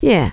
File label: "yeah", female; kingpin loc; neutral